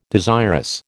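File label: "desirous", American English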